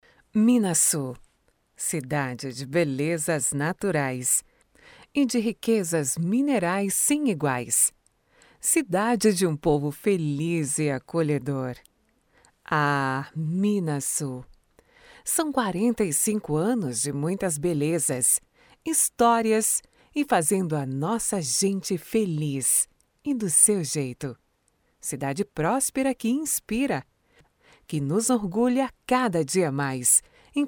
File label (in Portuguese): Institucional, banco de vozes